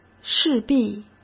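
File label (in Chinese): "势必", Mandarin Chinese; hsk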